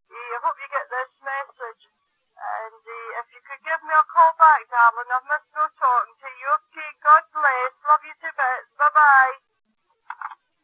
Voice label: Ansaphone Message